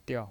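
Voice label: "diao" Mandarin Chinese, neutral tone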